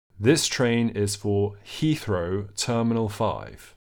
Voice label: This train is for Heathrow Terminal 5, received pronunciation